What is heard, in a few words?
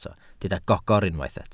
"gogr", Welsh